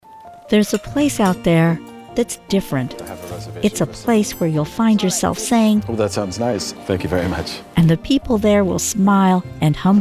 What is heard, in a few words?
Commercial